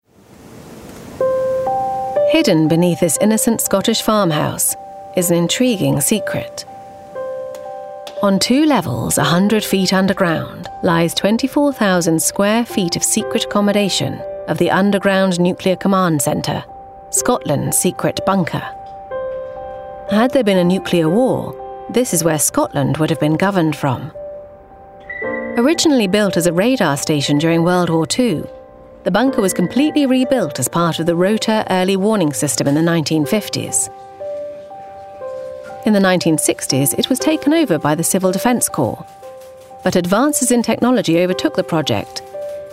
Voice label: Documentary; Scotlands Secret Bunker